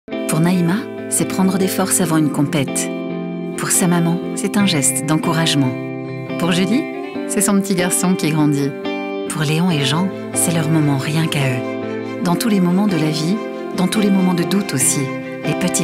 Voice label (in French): pub voix off; aribev